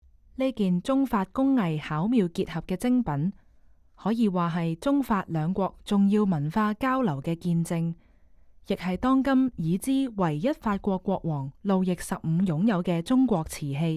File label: Cantonese, audio guide; versailles